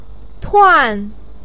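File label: "tuan4" Chinese syllable, pinyin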